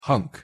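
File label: "hunk", English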